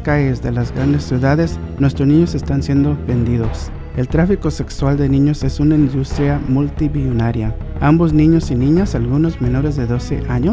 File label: human trafficking spanish; DCFS